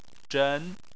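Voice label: "zhen" Chinese, second tone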